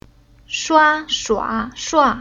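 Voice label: "Shua", chinese syllable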